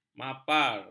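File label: "mapar", Malay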